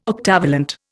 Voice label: "octavalent", Indian